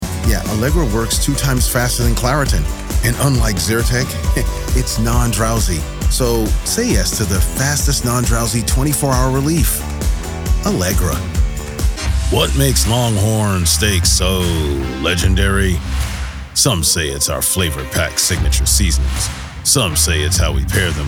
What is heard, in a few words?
Commercial